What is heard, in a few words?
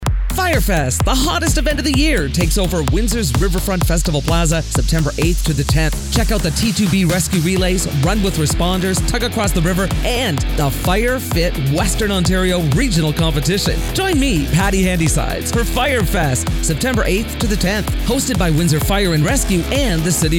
spot; AM800 93.9; Windsor Firefest 2023